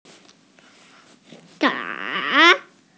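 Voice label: "da3", Chinese, female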